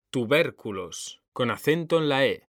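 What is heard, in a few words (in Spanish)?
"tubérculos", Spanish; mekanta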